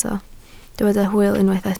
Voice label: "hwyl", Welsh